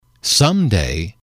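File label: "someday", English, male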